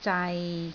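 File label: "zai6", Cantonese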